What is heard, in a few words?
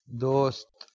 "dost", Urdu